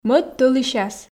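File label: "мӧд тӧлысяс", Komi, female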